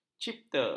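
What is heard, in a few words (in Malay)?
"cipta", Malay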